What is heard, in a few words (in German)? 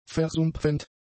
"versumpfend", German; adjectives